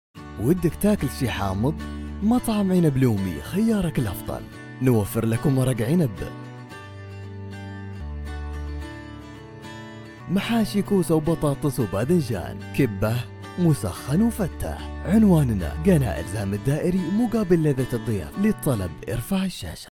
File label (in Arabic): تعليق صوتي مطعم عنب